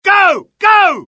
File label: suppress, dialogue UK; mohaa